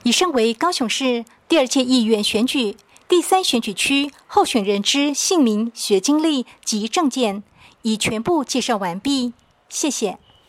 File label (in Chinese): 國語, 有聲選舉公報; 第三選區